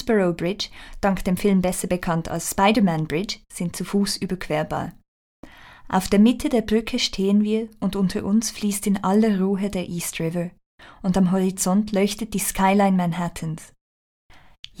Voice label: New York GERMAN